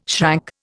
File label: "shrank", Indian